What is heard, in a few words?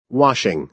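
"washing", American English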